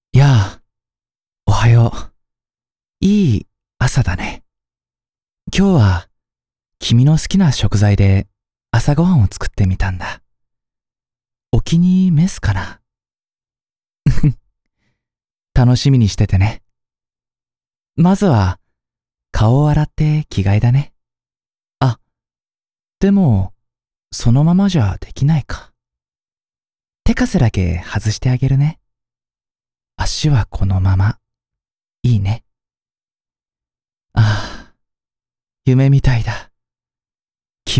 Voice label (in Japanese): 「おはよう」 ヤンデレ